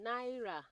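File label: "naira", Nigerian Pidgin, female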